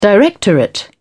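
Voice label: "directorate", British English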